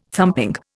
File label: "thumping", Indian